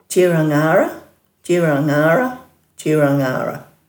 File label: "djirangara", Dhurga